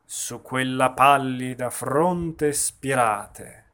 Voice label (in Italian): espressivo; Macbeth; pronunciation